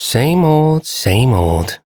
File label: Ambient Sedentary, en; Duviri